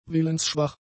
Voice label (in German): "willensschwach", German; adjectives